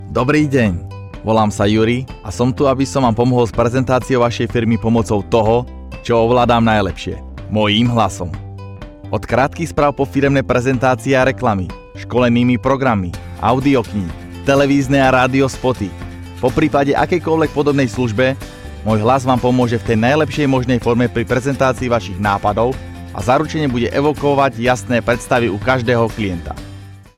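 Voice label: Slovak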